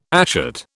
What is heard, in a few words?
"ashet", British